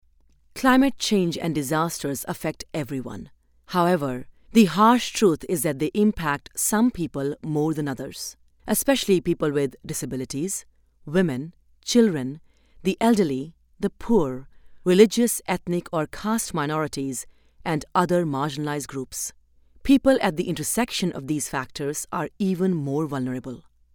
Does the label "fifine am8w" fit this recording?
No